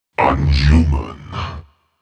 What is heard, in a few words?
kills, announcer, male